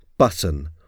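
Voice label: "button", English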